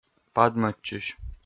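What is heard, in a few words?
"падмӧдчысь", Komi, male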